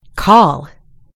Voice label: "call", en US; gcompris